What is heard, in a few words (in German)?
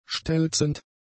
"stelzend", German; adjectives